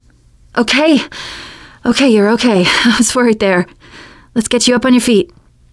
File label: revive friend, teen girl